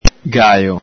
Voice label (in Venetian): "galo", Veneto; gaƚo; ENG: rooster, cock; ITA: gallo